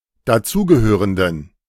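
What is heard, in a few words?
"dazugehörenden", German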